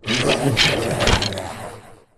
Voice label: Sad King die; Fly For Insanity